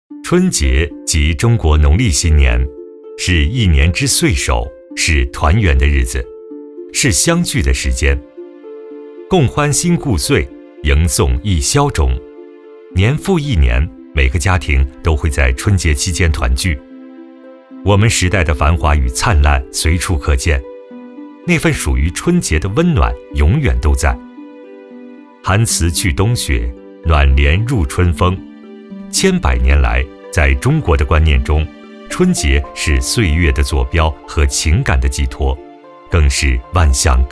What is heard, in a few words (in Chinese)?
男 纪录片 春节